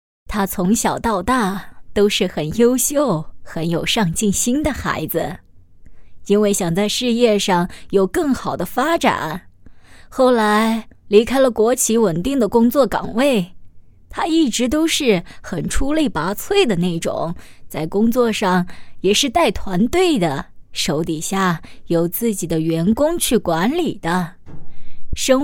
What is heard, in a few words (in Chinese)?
女 角色 50 奶奶